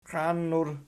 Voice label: "qiannur", Archi